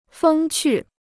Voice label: "风趣", Chinese

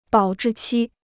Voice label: "保质期", Chinese

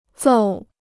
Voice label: "揍", Chinese

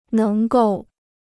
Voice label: "能够", Chinese

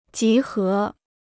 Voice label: "集合", Chinese

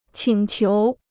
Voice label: "请求", Chinese

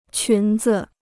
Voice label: "裙子", Chinese

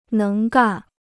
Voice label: "能干", Chinese

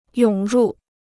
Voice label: "涌入", Chinese

